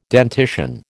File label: "dentition", American